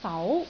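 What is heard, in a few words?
"fau2", Cantonese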